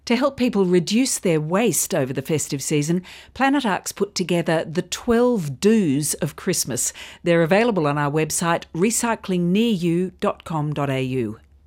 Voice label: radio grab